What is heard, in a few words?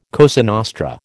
"Cosa Nostra", American